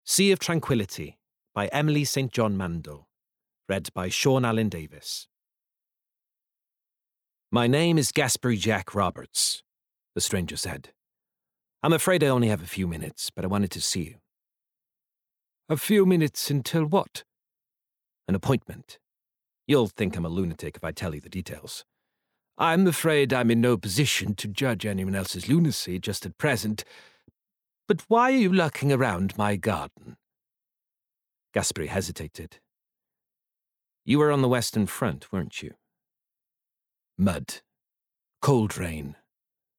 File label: Bookread; Sea Of Tranquility